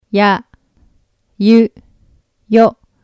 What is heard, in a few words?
"ya yu yo", Japanese; Hiragana the Basics of Japanese; Example Words